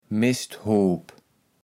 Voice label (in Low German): "Mesthoop", kaevels platt